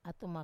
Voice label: "atuma", child, wamesa

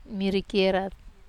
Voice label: miri kierat, wamesa

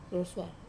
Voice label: "rosua", frog, wamesa

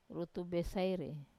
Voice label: "rutu besaire", bedbug, wamesa

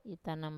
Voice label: "itanam", I am short, wamesa

